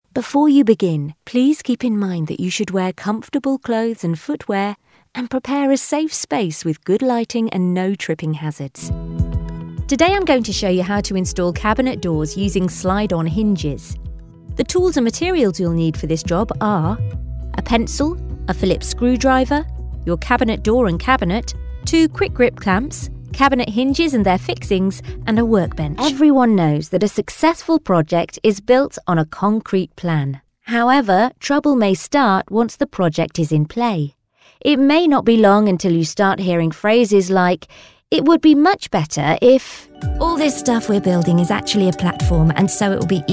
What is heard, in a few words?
english british corporate videos